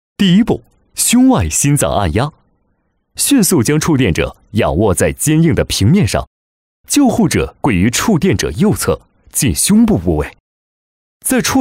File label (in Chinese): 男 课件 触电急救